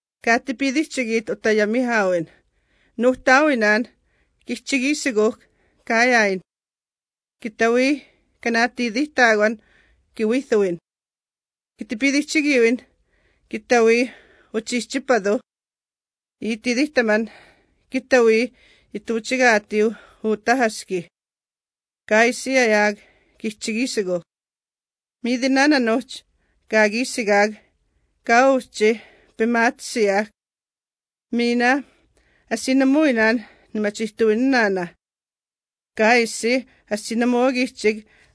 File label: Lords Prayer Woodland Cree